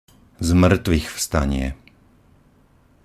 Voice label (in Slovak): pronunciation sk zmrtvychvstanie